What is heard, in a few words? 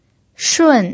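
"shun", Mandarin Chinese pinyin, fourth tone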